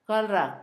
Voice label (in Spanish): conocimiento, Zapoteco, Asunción Ocotlan; Sustantivos; INALI